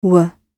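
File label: "were", British English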